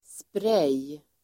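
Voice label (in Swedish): "sprej", Swedish; Uttal: [sprej:]; sprej substantiv, spray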